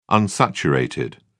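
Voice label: "unsaturated", English, British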